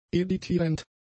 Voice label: "editierend", german; adjectives